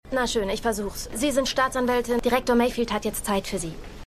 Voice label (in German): ICE Assistentin; HTGAWM